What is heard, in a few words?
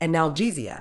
"analgesia", Medical English; ˌæn.əlˈdʒiː.ziː.ə; an-uhl-JEE-zee-uh